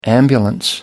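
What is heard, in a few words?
"ambulance", English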